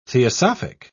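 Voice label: "theosophic", British English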